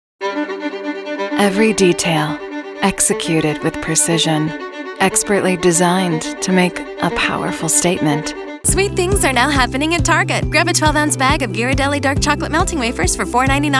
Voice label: commercial, female voices